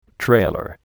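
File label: "trailer", English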